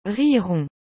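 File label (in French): "riz rond", French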